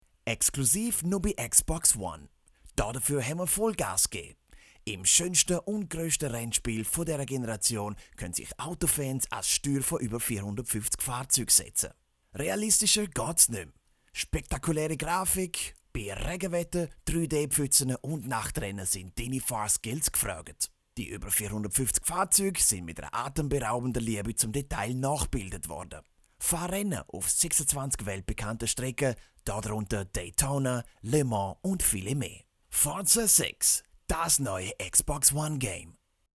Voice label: male german swiss german commercial; xbox

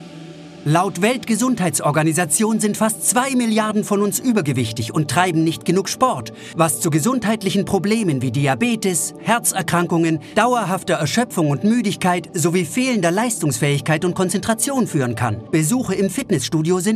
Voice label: male, German, commercial

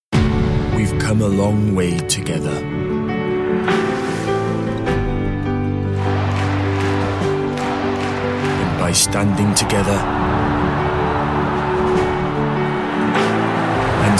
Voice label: male english welsh welsh accent compilation; welsh voice over; devine voices

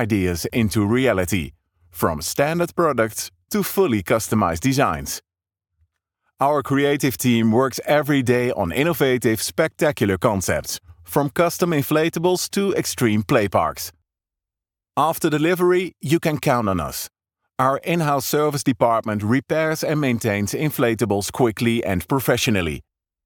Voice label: male dutch english with dutch accent

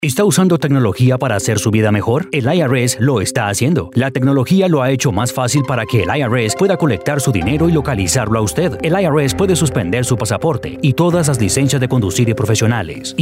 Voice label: male spanish colombian corporate explainer

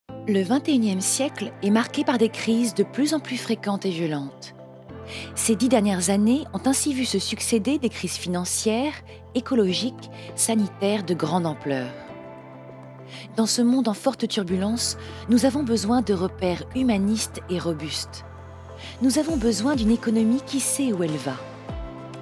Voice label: female french corporate compilation

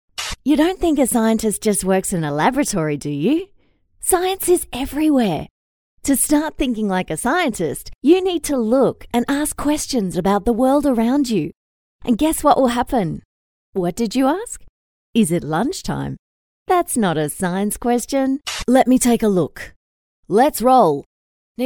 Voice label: female English Australian character compilation; Devine Voices

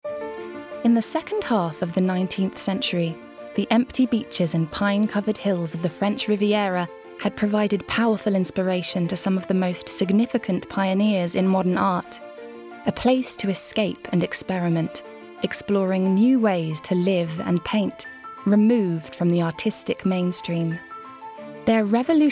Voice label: female English documentary narration; Devine Voices

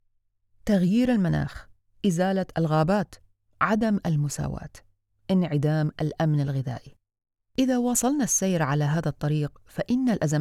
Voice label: female arabic e learning compilation; Devine Voices

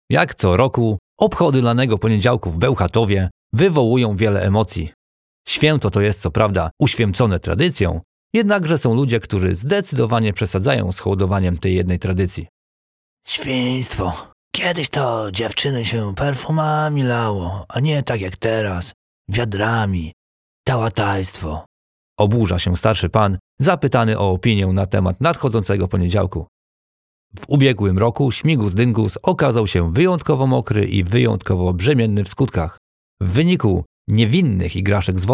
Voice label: male, Polish, reportage

